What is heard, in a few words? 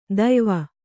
"ದೆಯ್ವ", Kannada